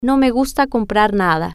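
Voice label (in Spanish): No Me Gusta Compar Nada, spanish, female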